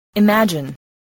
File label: "imagine", voice spelling